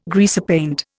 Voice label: "greasepaint", Indian

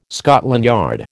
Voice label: "Scotland Yard", American

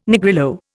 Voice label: "Negrillo", Indian

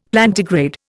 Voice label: "Plantigrade", Indian; Dictionary

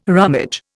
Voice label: "rummage", Indian

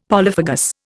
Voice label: "polyphagous", Indian; Dictionary

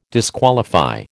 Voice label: "disqualify", American

